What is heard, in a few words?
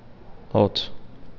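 "alto", CHN, male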